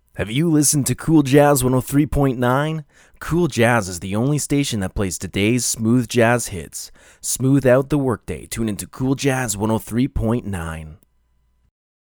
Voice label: voiceover practice